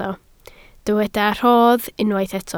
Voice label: "rhodd", Welsh